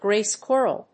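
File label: "gray squirrel", English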